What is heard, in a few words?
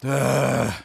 negative vocalization; Engineer